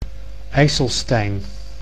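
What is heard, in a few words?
"IJsselstein", Dutch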